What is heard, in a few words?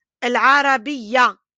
"العربية", Arabic